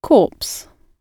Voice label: "corpse", gb